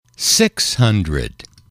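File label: "six hundred", English, male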